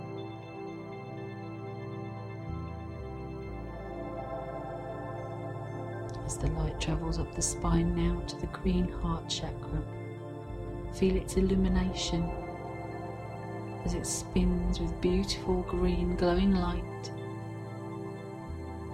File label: Chakra Awareness Meditation